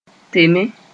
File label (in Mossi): "teme", moore